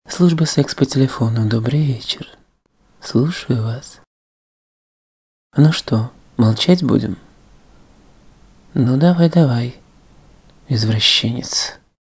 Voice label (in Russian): автоответчик